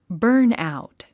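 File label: "burnout", English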